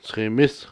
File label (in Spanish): "congelado", Cucapá